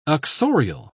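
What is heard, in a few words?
"uxorial", British English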